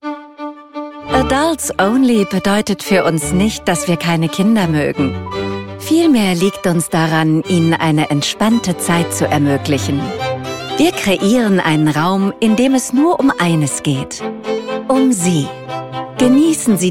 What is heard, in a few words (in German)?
Werbung Resorts; Silent Group